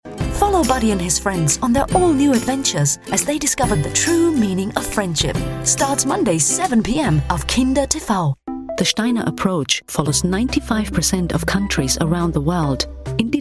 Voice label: English